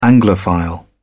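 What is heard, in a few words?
"anglophile", British English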